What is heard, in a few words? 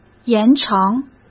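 "延长", Mandarin Chinese; chinese-flashcards; hsk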